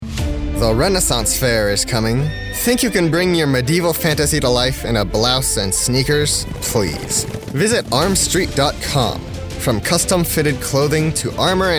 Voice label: Commercial Clothing